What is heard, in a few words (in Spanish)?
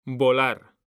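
"VOLAR", Spanish, male; mekanta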